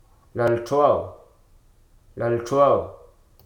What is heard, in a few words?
"inversion", Hruso